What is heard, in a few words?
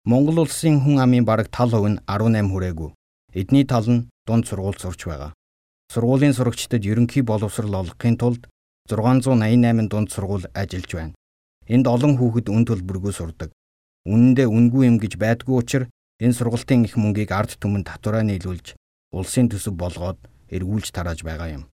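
Mongolian narration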